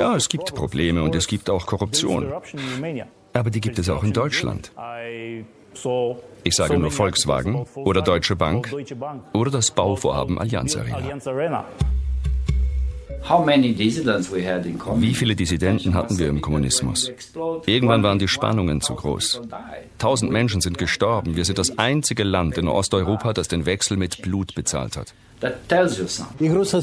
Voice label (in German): Voiceover Rumänien; KULTURMONTAG